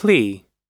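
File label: "plea", American English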